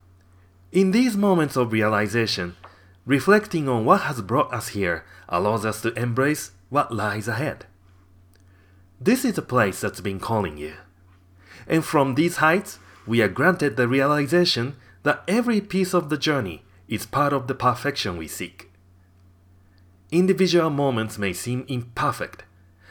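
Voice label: Japanese English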